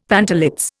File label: "pantalets", Indian; Dictionary